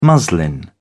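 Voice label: "muslin", British English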